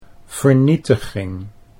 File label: "vernietiging", Dutch